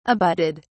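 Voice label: "abutted", English, British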